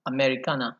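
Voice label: "Americana", Nigerian Pidgin, male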